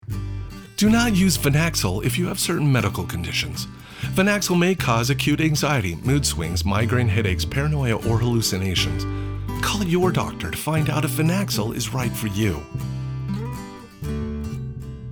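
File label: voice over upbeat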